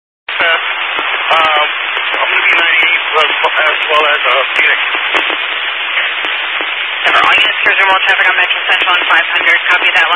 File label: Highway Patrol, scanner; DPS